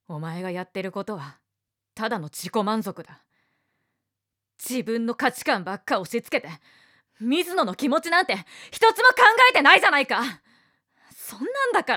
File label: boy